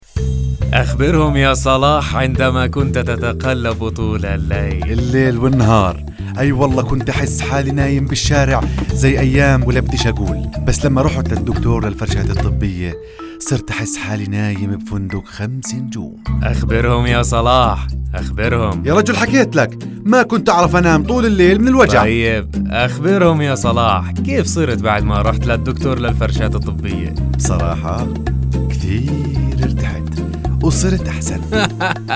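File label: Arabic Jordan Palestine accent; GoLocalise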